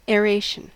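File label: "aeration", US English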